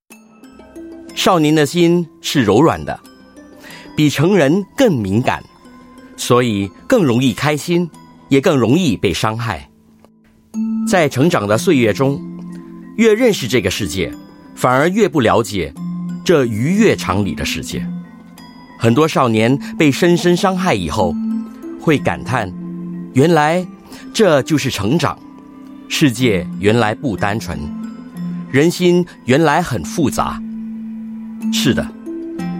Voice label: Mandarin

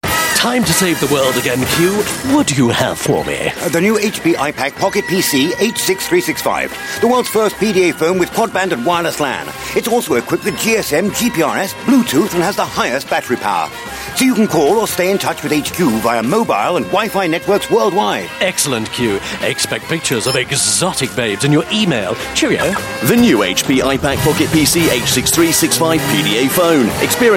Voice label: UK English; IPAQ

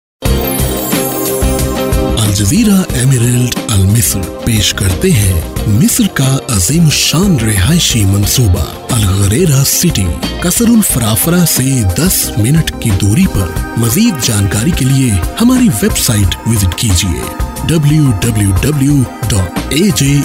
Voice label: Urdu, Male; AJ Emerald UAE

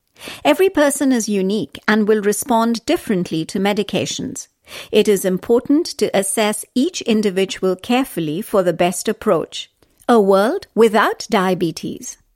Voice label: Indian English, Female; Diabetes

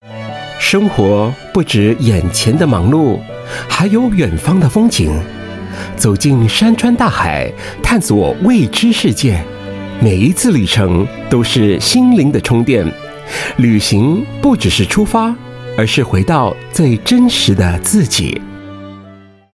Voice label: Mandarin China Travel Relaxed

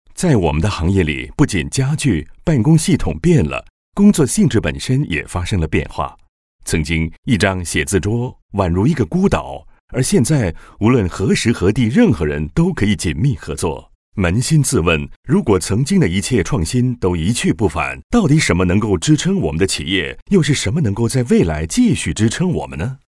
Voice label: Mandarin sample, China male

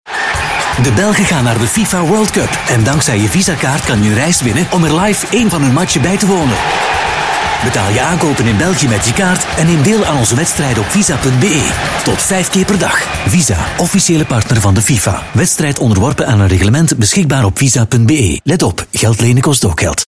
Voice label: Flemish Promo, Male; VISA

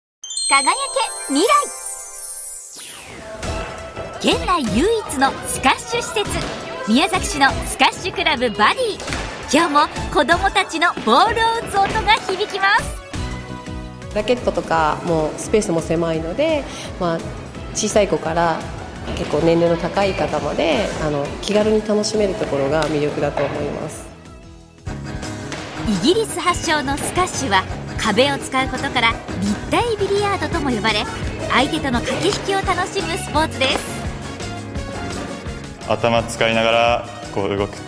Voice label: Japanese

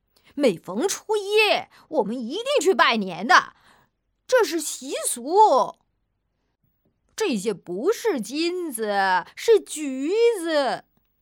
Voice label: Mandarin Boy Voice, Mandarin China Female

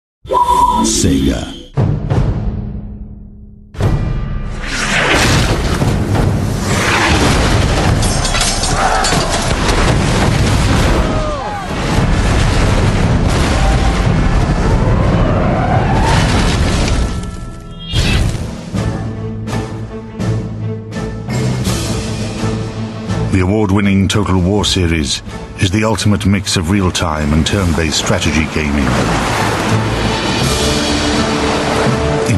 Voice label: UK English Trailer; Total Warfare

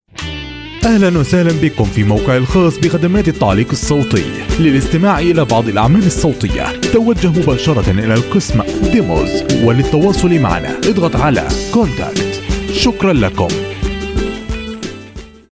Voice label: Arabic Male Voice

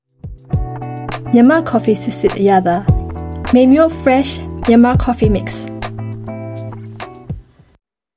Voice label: Burmese; MayMyo Fresh

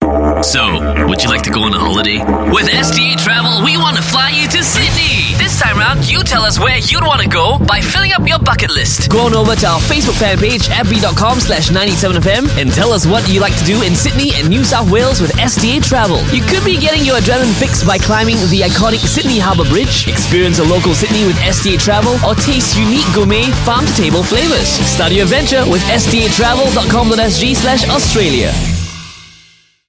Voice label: English Sydney Trailer, English Singaporean Male; STA

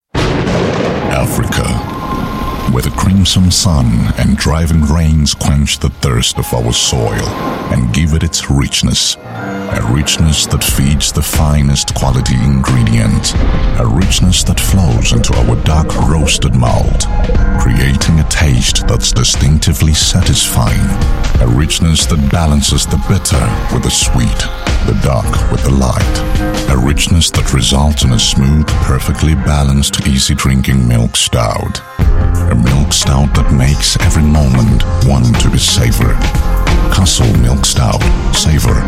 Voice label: African English Ad Radio, African Male; Castle Milk Stout

